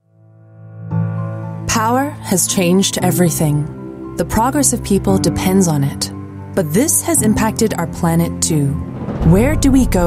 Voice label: Mid-Atlantic English; Mitsubishi Power